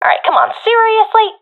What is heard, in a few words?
taking fire friendly, professional fem; Counter Strike Model Pack; CS:GO